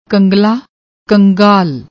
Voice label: "kangla", Punjabi; ਕੰਗਾਲ